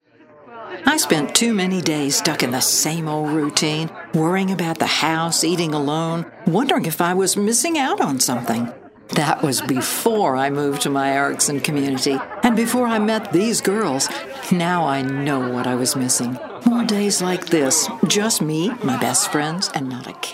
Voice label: real people mature